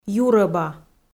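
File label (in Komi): "юрӧба" Komi, female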